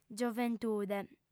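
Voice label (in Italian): "gioventude", lingua sarda, female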